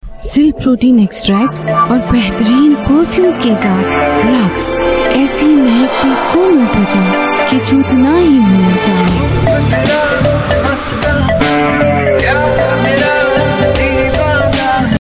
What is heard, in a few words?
Hindi F; India Female; LUX